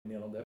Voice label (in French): neerlandais prononciation